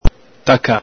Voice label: "taca", Veneto

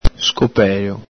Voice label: "scopelo", Veneto

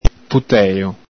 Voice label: "putelo", Venetian

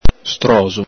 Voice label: "stroxo", Veneto

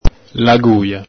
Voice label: "laguia", Venetian

